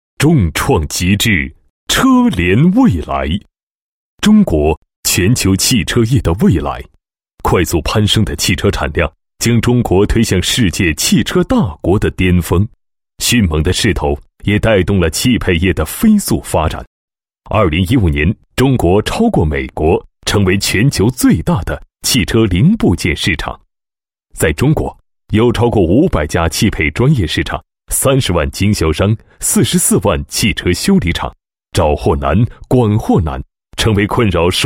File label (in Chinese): 男 专题 汽配业宣传简介, 国语男声配音; 大气专题